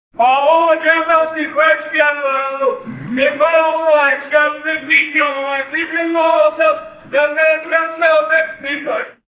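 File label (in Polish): starszy mężczyzna z ukraińs; dialogue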